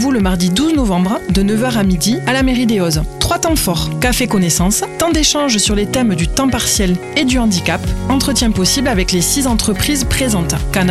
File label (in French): PUB FRANCE TRAVAIL